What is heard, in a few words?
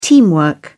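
"teamwork", British English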